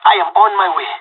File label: on my way, pirate; Counter Strike Model Pack; CS:GO